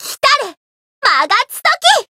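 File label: Voice limit break, Japanese; suzette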